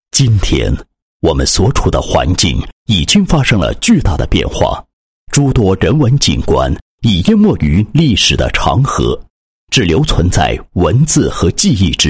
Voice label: 男 旁白; 耀点科技

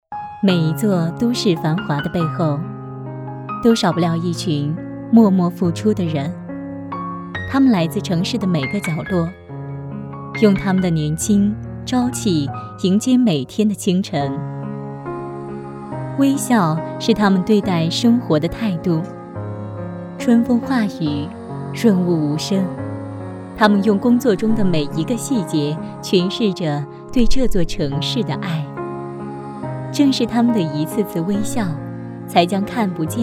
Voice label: 女-旁白-温情; 我们在这里将温暖传递

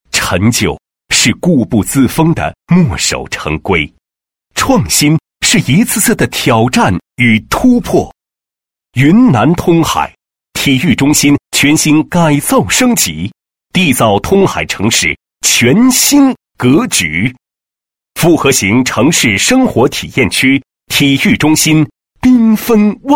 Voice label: 男 解说 体育中心解说词 录音; 通海体育中心解说词

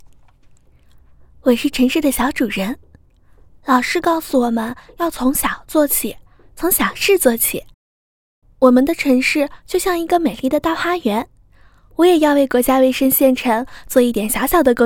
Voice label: 女 旁白 初中生女

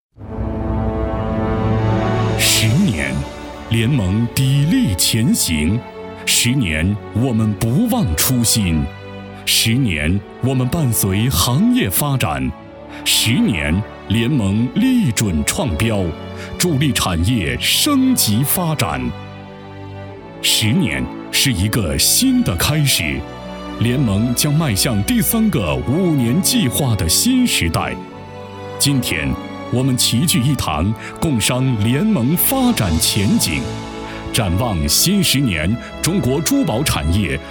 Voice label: 男 专题 稳重有力; 十年专题

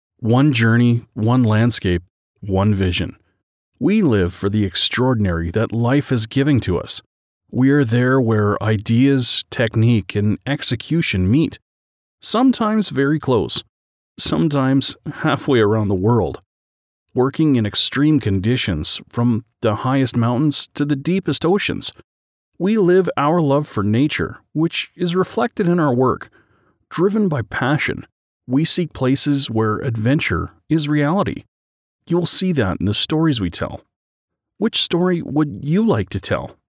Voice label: 外籍男; Imagefilm

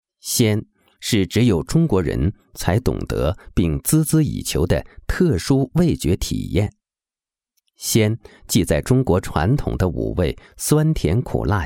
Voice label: 国语男声配音; 舌尖上的中国白鹜鸭

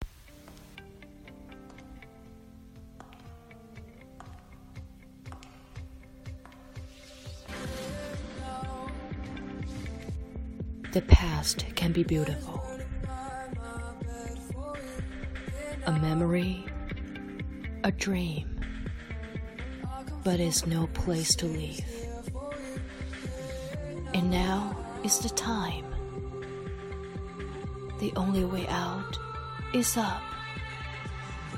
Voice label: 英文广告; Dior

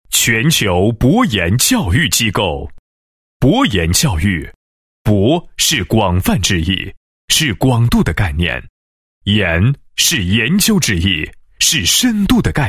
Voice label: 男-教育机构-教育机构宣传片, 配音案例; 全球博研教育机构宣传片

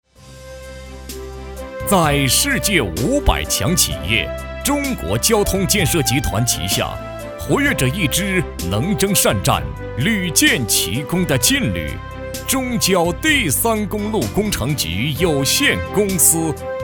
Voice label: 男 振奋 大气; 中交三公局; 央视老师

